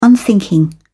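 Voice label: "unthinking", British English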